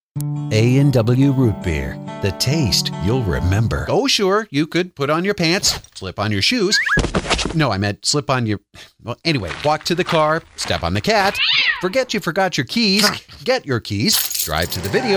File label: commercial; demo